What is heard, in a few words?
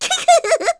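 Vox Skill, Korean; Miruru; kingsraid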